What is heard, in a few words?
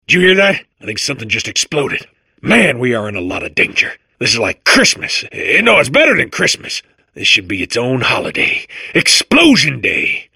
babble; portal2